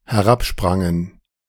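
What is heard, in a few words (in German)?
"herabsprangen", German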